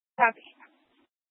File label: Police Dispatch; Police 1 Dispatch